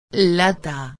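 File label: "lata", Spanish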